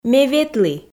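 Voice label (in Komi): ме ветлі, Komi, female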